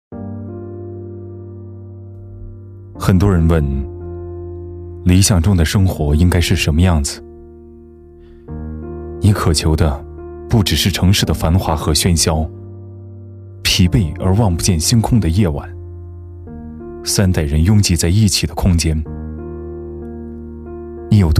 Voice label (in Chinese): 男 广告; 华茂半岛